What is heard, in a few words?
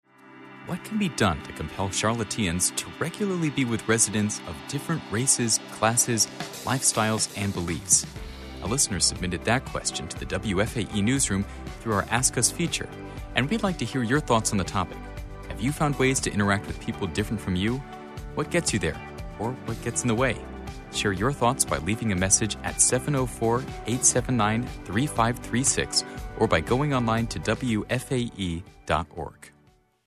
promo mixed; hearken; faqcityvoices